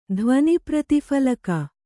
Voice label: "ಧ್ವನಿಪ್ರತಿಫಲಕ", Kannada; dhvani prati phalaka; noun; a sounding board.

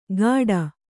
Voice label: "ಗಾಡ", Kannada

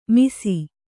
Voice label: "ಮಿಸಿ", Kannada; misi; noun; = ಮಿಶ್ರೇಯ.; spikenard.

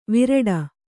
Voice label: "ವಿರಾಟ", Kannada

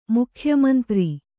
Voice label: "ಮುಖ್ಯಮಂತ್ರಿ", Kannada; mukhya mantri; noun; a chief minister.

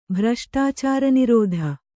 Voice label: "ಭ್ರಷ್ಟಾಚಾರನಿರೋಧ", kannada

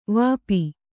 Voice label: "ವಾಪಿ", kannada

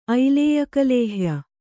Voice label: "ಐಲೇಯಕಲೇಹ್ಯ", kannada

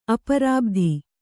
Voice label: "ಅಪರಾಬ್ಧಿ", Kannada

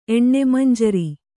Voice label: "ಎಣ್ಣೆಮಂಜರಿ", Kannada

